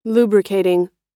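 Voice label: "lubricating", American English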